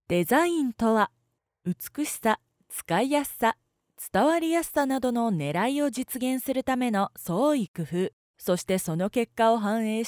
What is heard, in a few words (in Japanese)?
ナレーションサンプル デザイン